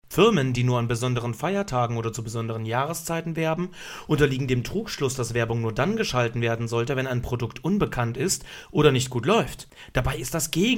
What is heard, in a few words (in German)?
werbung